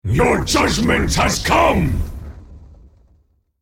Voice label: male elemental trigger bloodlust